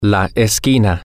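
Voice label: the corner, Spanish, male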